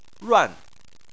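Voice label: "ruan", Chinese, tone 4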